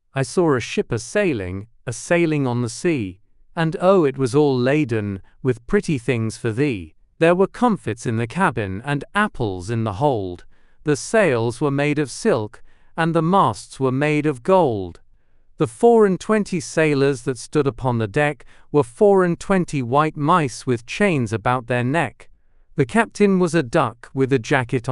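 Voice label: male British, classic rhymes; I Saw a Ship A Sailing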